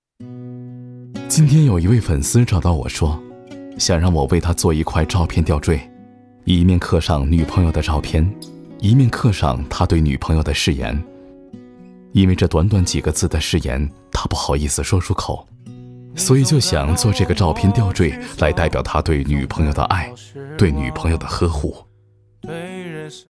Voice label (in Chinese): 男 独白 自然; 今天有一位粉丝找到我